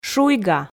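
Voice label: "шуйга", Komi, female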